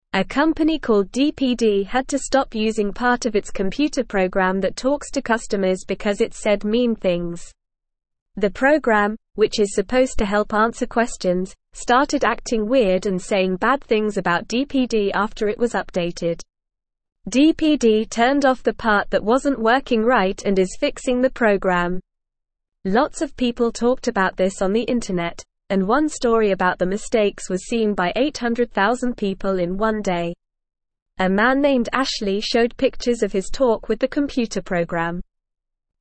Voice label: English Lower Intermediate NORMAL Reading; English Newsroom; Computer Helper Says Mean Words Company Fixes Pro